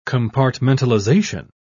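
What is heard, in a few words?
"compartmentalization", British English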